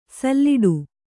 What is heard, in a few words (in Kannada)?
"ಸೊಲ್ಲಿಡು", Kannada